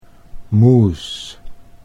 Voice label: "moose", Dutch English

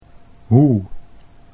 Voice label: "hoe", Dutch

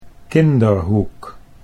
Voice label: "Kinderhoek", Dutch

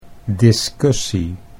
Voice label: "discussie", Dutch

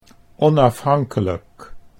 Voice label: "onafhankelijk", Dutch

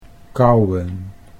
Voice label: "kauwen", Dutch

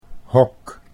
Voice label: "hok", Dutch

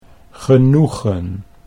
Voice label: "genoegen", Dutch

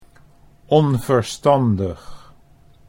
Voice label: "onverstandig", Dutch

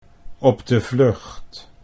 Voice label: "op de vlucht", Dutch

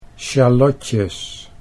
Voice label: "sjalotjes", Dutch

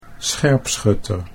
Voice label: "scherpschutter", Dutch

